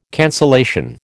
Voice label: "cancellation", American

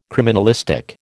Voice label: "criminalistic", American

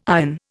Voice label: "iron", Indian

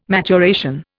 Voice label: "maturation", Indian

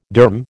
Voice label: "derm", American

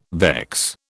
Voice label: "vex", British

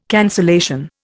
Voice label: "cancellation", Indian

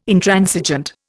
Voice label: "Intransigent", Indian

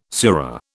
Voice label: "sura", British

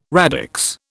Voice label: "radix", British

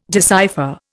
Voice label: "decipher", Indian

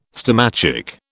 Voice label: "stomachic", British

